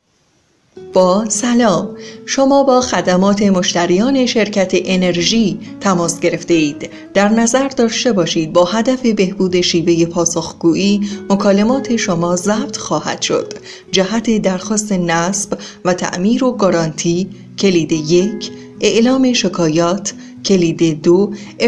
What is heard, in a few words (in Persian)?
صدای خانم